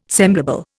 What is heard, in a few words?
"semblable", Indian